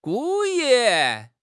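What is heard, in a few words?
"gu4 yi4", Chinese; HSK4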